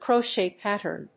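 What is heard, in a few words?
"crochet pattern", English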